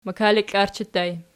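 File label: "makaliklarchi" ergative plural, Archi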